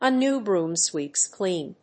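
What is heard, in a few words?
"a new broom sweeps clean", English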